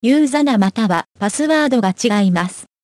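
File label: Username Or Password Incorrect, Japanese; OpenCore; AXEFIAudio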